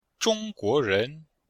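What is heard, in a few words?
"zhong1guo2ren2", Chinese; Cours de Chinois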